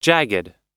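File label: "jagged", American English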